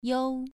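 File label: "you2", Chinese pronunciation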